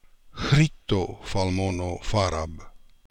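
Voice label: "Khritofalmonofarab", pronunciations; Fourth Way; Beelzebub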